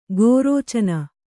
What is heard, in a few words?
"ಗೋರೋಚನ", kannada